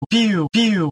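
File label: male voice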